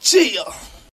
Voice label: "yeah" cheyah, voice